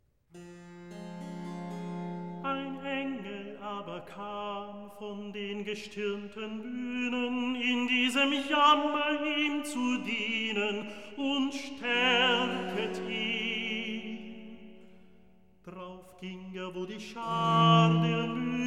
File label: Recitativo evangelist; Handel Masterworks